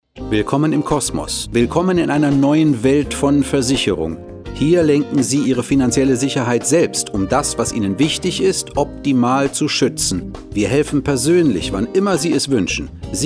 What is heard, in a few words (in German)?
Werbung; CosmosDirekt